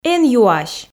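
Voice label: "эн юась", Komi, female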